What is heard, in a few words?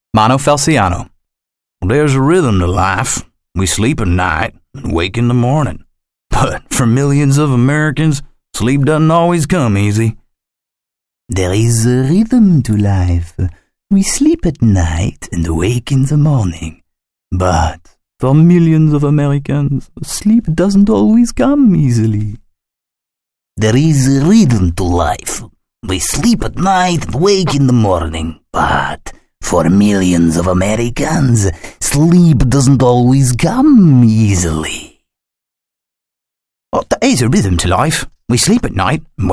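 animation accents, men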